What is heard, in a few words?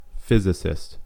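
"physicist", American English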